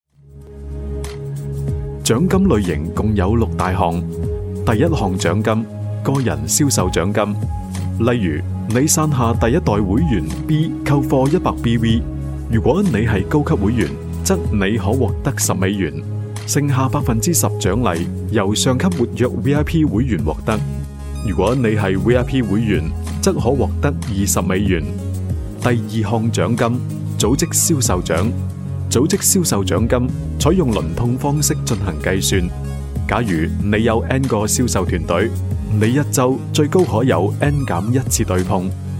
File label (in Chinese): 男 粤语; 嘉国际獎金制度